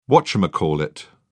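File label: "whatchamacallit", British English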